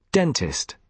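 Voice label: "dentist", British English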